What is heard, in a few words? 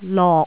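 "lok6", Cantonese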